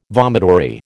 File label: "vomitory", American